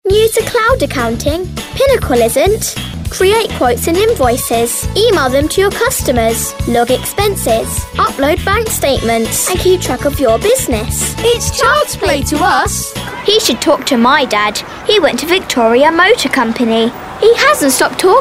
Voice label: Kidztalk